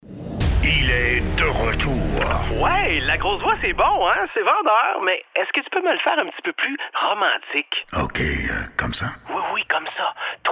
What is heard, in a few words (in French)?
bande annonce francais quebecois naturel annonceur comedie humour; articulation exceptionnel